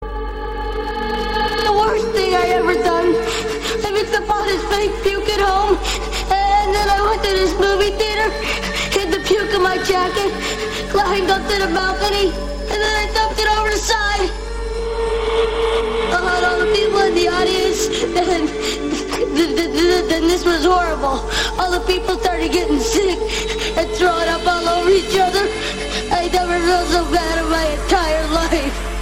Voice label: Horror Voice

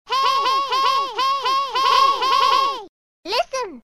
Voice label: Hey, Annoying; Navi

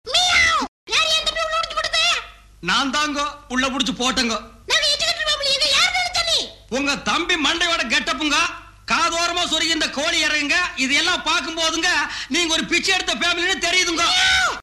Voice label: Comedy; Senthil

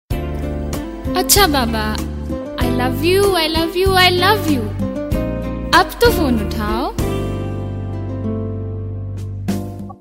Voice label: I love u Girl Say

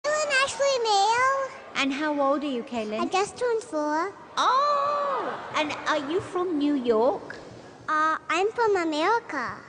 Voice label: Cutie American Girl

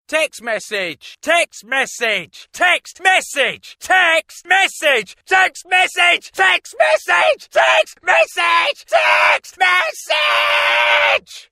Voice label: Text Msg Shouting

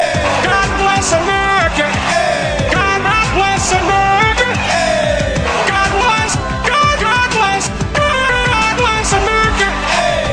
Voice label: Autotune; Biden

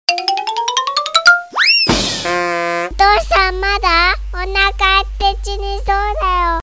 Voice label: Japanese Funny

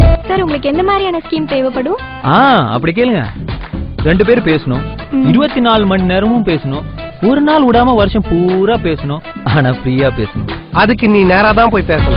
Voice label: Comedy

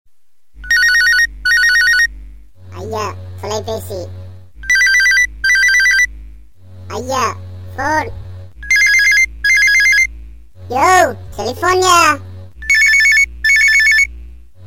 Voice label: Scolding Tamil Tone

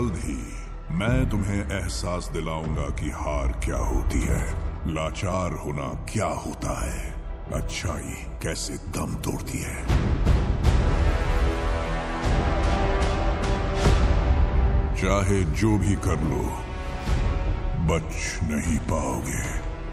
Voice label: Hindi; Thanos

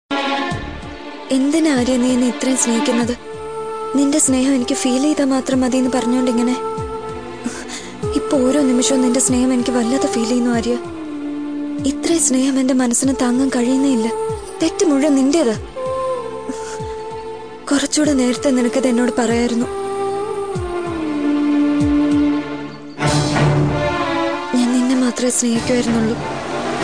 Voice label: Romantic Dailogue

